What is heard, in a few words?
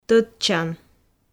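"тӧдчан", Komi, female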